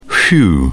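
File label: "whew", British English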